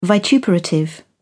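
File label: "vituperative", British English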